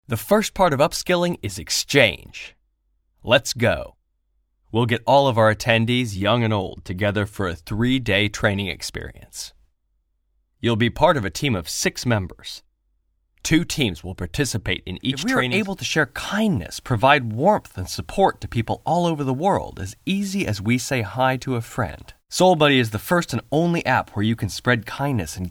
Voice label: Advert

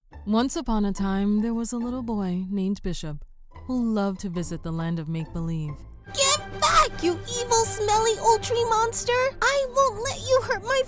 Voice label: character

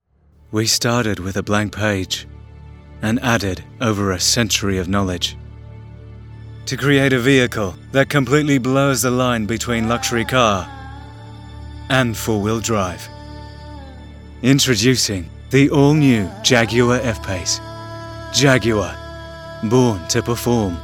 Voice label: Australian commercial

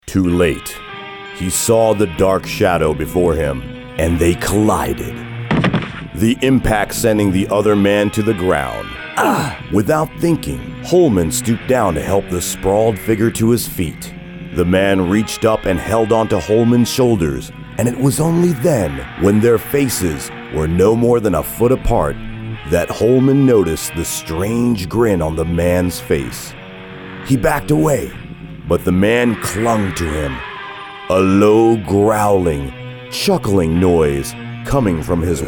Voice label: Dramatic

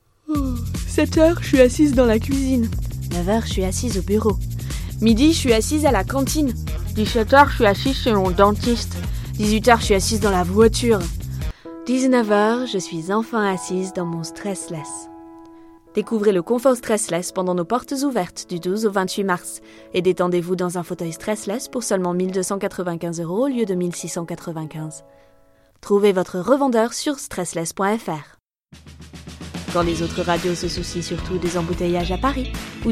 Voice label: FR Commercial voice

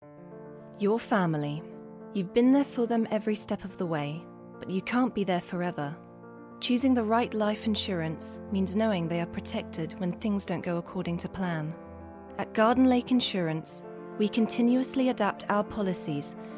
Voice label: Commercial

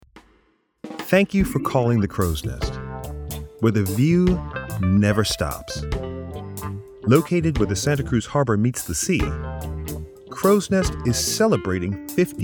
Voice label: On Hold; Crows Nest

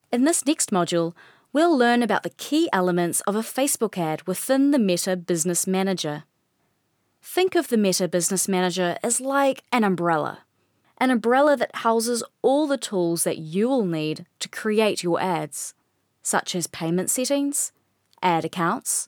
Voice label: E-Learning Reel

